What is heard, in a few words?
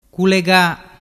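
"culega", Ligurian dialect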